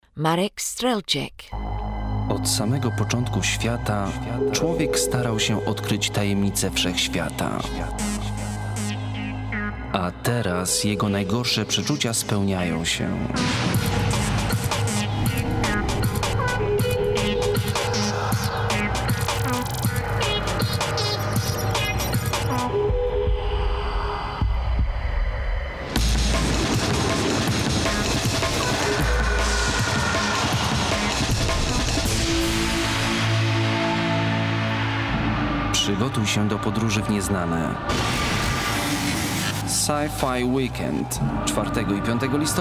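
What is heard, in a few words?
polish commercials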